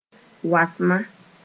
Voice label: "wasma", Mooré